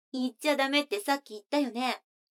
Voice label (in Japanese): 女の子 台詞